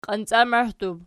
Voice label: "qiancamahdub", Archi

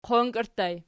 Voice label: "qonki", Archi

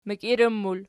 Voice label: "mikiiran", Archi

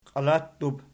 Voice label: "qialattub", Archi

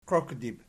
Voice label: "qioqdib", Archi

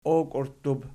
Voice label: "okiurttub", Archi

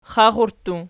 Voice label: xaxurttu nom, archi

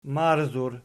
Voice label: "marzur", Archi

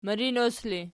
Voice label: "mirinos", Archi

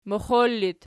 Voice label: "moxol", Archi

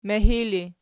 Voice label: "mihi", Archi

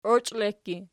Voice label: "oichlekki", Archi

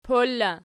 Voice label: "pol", Archi

